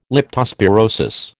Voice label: "leptospirosis", American

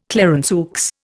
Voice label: "Clarenceux", Indian

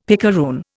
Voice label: "picaroon", Indian; Dictionary

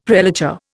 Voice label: "prelature", Indian; Dictionary

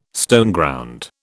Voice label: "Stoneground", British

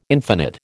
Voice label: "infinite", American

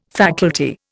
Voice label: "faculty", Indian

